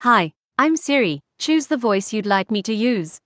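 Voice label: South African English; iOS Sounds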